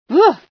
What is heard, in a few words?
female sci fear